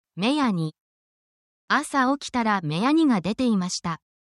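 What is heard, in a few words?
Japanese word, essential Japanese phrases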